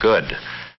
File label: "good", Voice; expletives